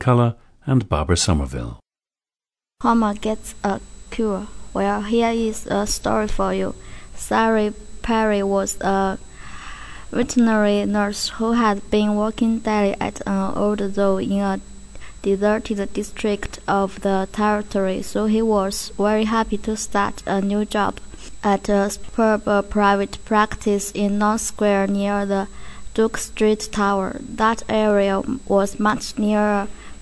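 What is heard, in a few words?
jiangsu, dialects of English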